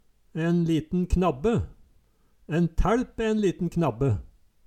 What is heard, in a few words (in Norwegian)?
en liten knabbe, Numedalsmål